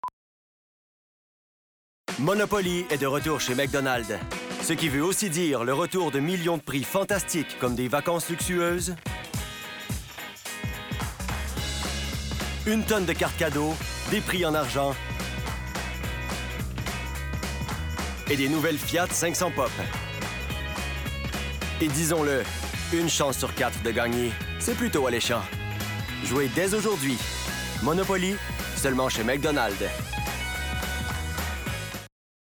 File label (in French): Publicité; McDonald's